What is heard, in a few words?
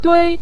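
"dui" neutral tone, Mandarin Chinese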